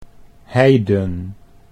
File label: "heiden", Dutch